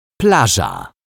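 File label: "plaza", Polish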